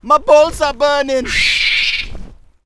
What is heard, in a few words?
Troll batrider Angry; warcraft3